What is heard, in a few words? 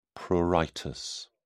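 "pruritus", British English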